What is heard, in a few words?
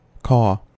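"caw", US English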